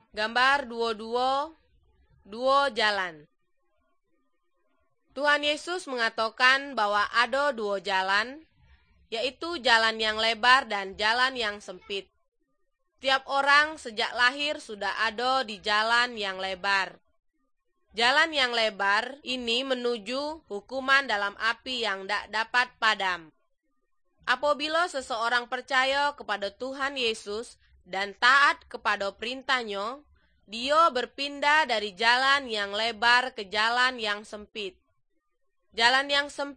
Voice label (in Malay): good news malay jambi